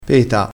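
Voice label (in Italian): "peta", dialettale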